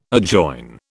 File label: "adjoin", British